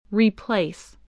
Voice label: "replace", English